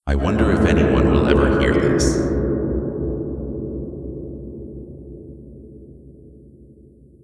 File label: narration; realmshift